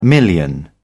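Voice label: "million", British English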